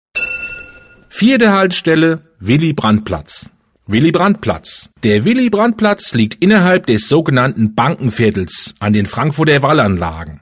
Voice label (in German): Hessisch; Willy-Brandt-Platz; Ebbelex; Entlang der Strecke